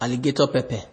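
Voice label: "alligator pepper", Nigerian Pidgin, male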